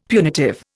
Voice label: "punitive", Indian; Dictionary

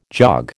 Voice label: "jog", American

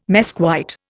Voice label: "mesquite", Indian

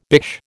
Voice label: "bish", American

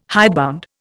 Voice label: "hidebound", Indian

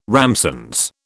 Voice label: "ramsons", British

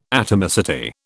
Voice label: "atomicity", British

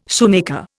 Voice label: "shoemaker", Indian

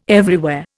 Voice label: "everywhere", Indian

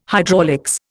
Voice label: "hydraulics", Indian

